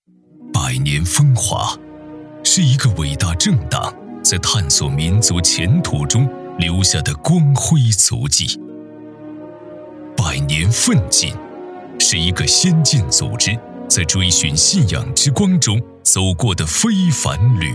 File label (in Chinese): 专题类; 农行总行建团百年